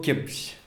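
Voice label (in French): "mouton" prononciation, French; Radio Berenkuil; La Cage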